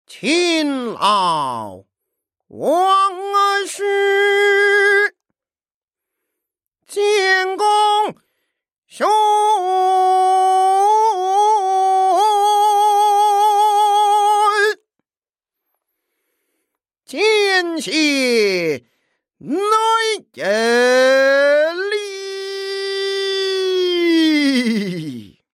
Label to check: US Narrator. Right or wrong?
Wrong